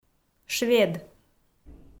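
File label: "швед", Komi, female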